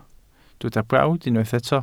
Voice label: "brawd", Welsh